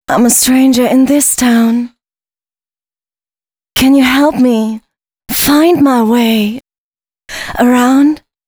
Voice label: female spoken hooks, dance ultra voice